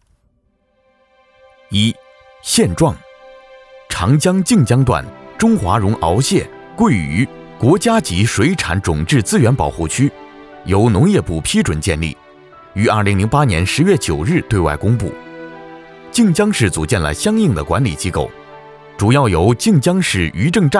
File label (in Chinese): 男 课件 保护区汇报